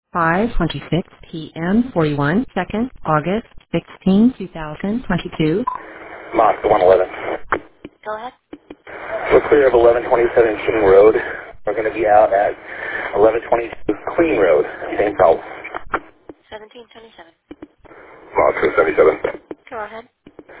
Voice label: noise complaint radio traffic